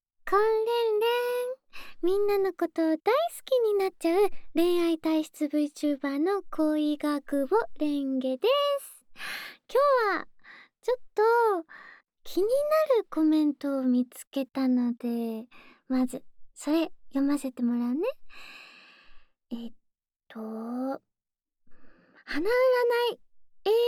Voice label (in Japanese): 耳元好き好き囁き; 好き…嫌い…好き…嫌い…？; VTuberに転声してみた 〜安済知佳→恋ヶ窪れんげ〜【好き好き・シャンプー・耳吹き】